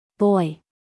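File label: "boy", American English female; everyone-can-use-english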